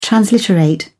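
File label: "transliterate", British English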